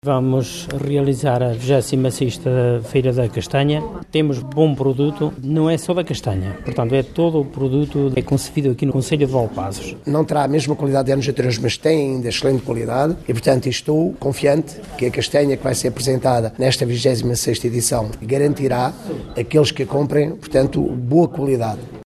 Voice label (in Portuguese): vox pop autarcas